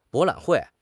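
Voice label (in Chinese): "博览会", Chinese; HSK6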